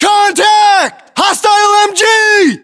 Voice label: US threat mg, battlechatter, english